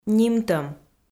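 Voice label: "нимтӧм", Komi-Zyrian, female; kpv audio dict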